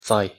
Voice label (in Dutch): "цай", Ossetisch; thee-in-andere-talen